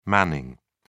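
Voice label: "manning", British English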